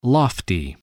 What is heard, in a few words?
"lofty", spelling